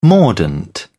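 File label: "mordant", British English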